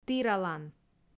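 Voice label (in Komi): "тіралан", Komi, female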